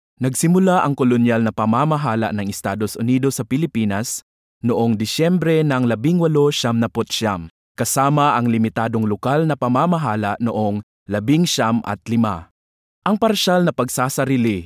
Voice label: Nachvertonung Film Sprecher Tagalog

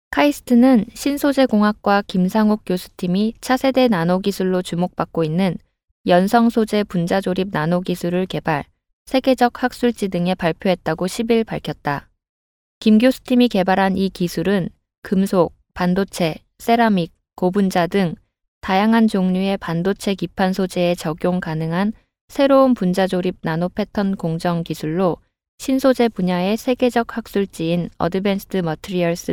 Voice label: Nachvertonung Film Sprecherin Koreanisch

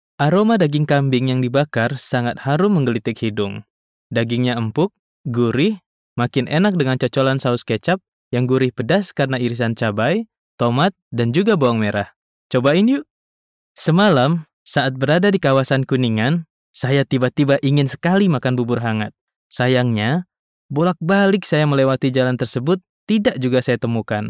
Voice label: Nachvertonung Film Sprecher Indonesisch

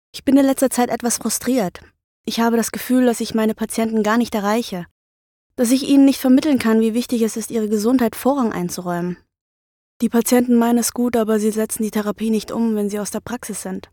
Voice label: Nachvertonung Film Sprecherin Deutsch

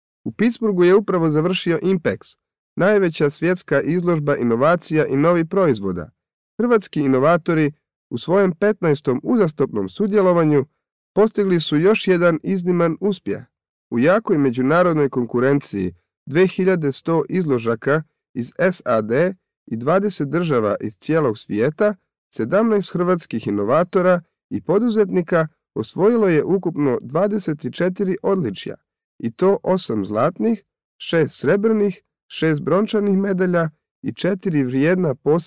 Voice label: Nachvertonung Film Sprecher Kroatisch

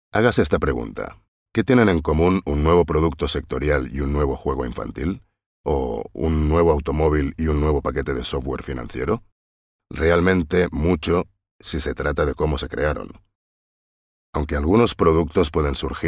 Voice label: Nachvertonung Film Sprecher Spanisch

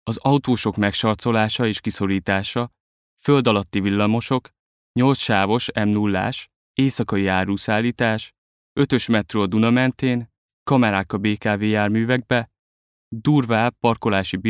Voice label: Sprecher Ungarisch; Nachvertonung Film

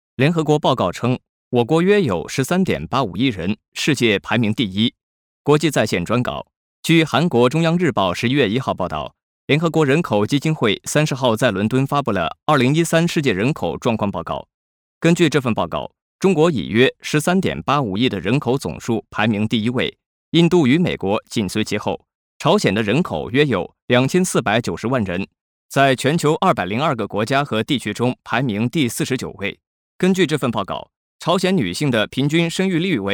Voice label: Nachvertonung Film Sprecher Chinesisch

